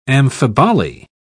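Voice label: "amphiboly", British English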